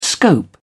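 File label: "scope", British English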